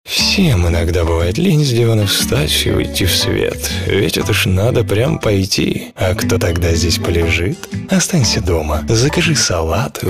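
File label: advert education, Russian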